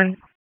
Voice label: Police North District Tac 1, p25; Raleigh; wake

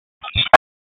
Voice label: Police Southeast District Tac; Raleigh; Tac 1; wake

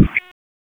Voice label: Police Southeast District Tac; wake p25

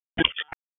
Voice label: Police Northeast District Tac 1, p25

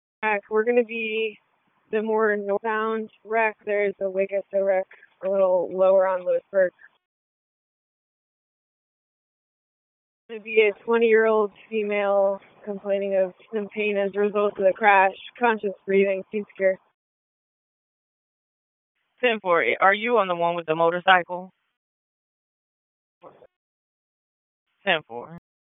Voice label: Police Northeast District Disp, p25; Raleigh

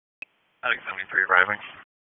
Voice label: PS TAC, p25; wake

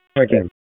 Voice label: FIRE OPS, p25; wake

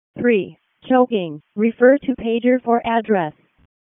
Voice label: EMS ALERT, p25; wake

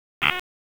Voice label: Police Northwest District Tac 1; Raleigh; wake; p25

